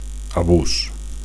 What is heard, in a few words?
"abus", Catalan; (m) abuse